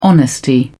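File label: "honesty", British English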